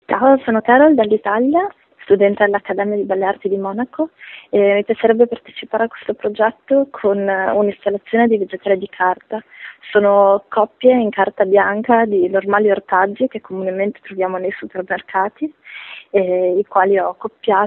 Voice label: Voicemail